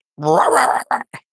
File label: voice message